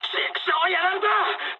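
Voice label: Japanese aircraft destroyed mood high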